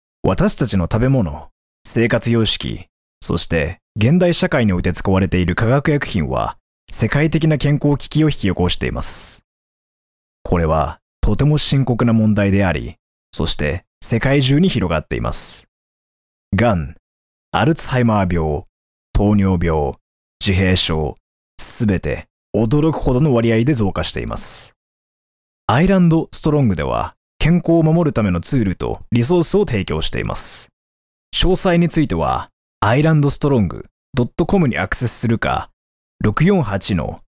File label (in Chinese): 日语男 解说